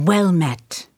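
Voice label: female hi; nwnds audio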